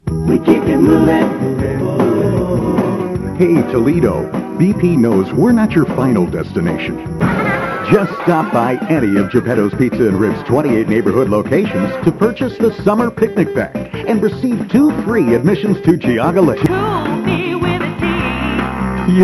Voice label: commercials